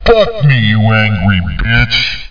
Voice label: GRL